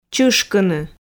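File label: "чышкыны", Komi, female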